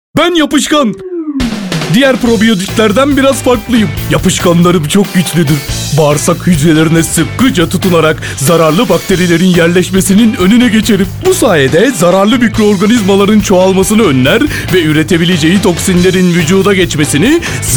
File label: elearning; probiyotik yapiskan